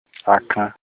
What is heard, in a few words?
"hawk", Olukumi